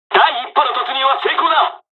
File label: dialogs japanese; hon jpn second wave; War Thunder